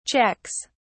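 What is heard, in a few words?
"checks", British English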